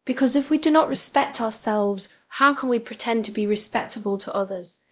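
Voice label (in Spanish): Voice Acting, es, female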